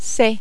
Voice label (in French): "c", French